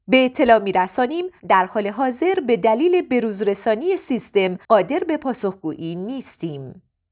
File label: voice women